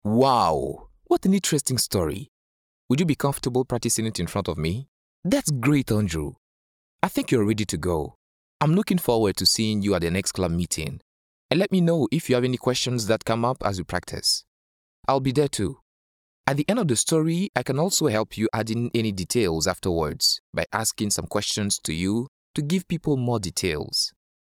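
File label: African English, male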